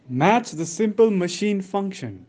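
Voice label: "match", English; simple machine new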